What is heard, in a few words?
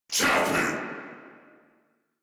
Surprised, Battle Barks